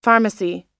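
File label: "pharmacy", US English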